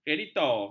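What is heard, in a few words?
"kreditor", Malay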